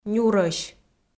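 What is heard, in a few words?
"нюрӧсь", Komi, female; kpv audio dict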